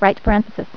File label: "Right Parenthesis", female voice; TalkingKeys